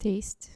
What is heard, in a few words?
"taste" dutch